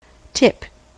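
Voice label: "tip", US English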